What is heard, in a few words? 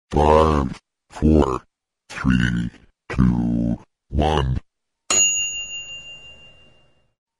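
male robot voice from 5 to 1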